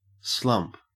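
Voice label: "slump", Australian English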